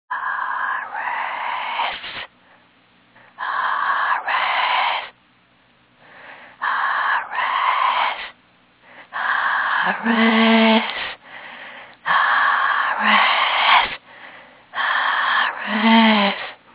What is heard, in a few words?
scary whisper